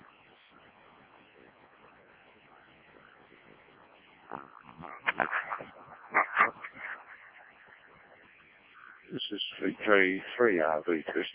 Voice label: decoded speech, parrot